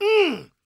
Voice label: preachin, gospel preacher; Spectrasonics; Vocal Planet Vol.1 Gospel; akai

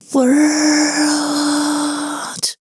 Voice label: WHISPER; Best Service; Voice Spectral II; WHISPERS

